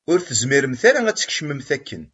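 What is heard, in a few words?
"Ur tezmirem ara ad tkecmem akken.", Kabyle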